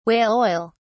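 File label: "whale oil", British English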